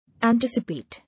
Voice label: "Anticipate", English

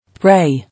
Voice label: "Pray", English

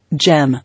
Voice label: "Gem", English

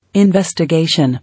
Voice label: "Investigation", English

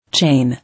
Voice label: "Chain", English

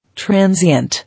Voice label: "Transient", English

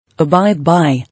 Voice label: "Abide by", English

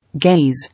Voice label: "Gaze", English

